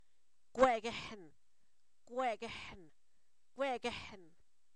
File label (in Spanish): cuello, Zapoteco, san miguel albarradas; cuerpo; INALI